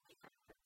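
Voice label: "понпиянныд", Komi, female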